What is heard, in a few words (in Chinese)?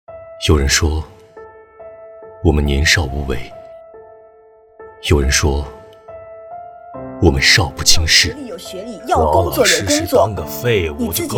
公益广告